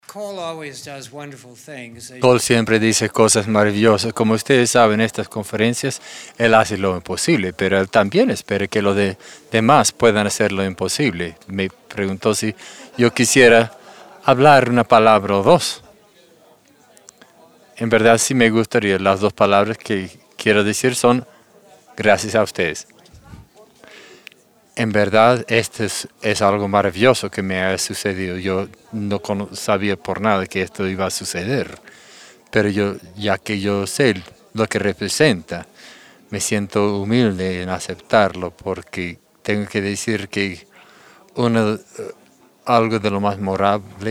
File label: Spanish